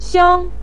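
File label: "xiang" neutral tone, Mandarin Chinese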